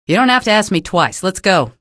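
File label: citizen evac ok, female; vo; npc; source engine; episodic; episode 1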